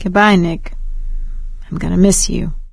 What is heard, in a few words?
survivor mourn, survivor voice; Left 4 Dead 2; producer